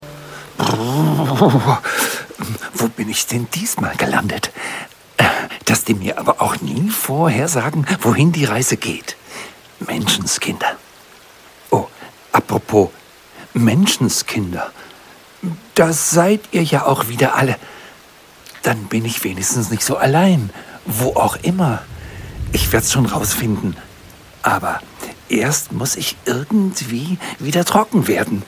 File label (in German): hoerspiel